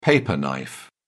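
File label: "paper knife", British English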